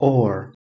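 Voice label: "ore", English word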